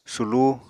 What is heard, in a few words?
"sulú", Apatani; Material Culture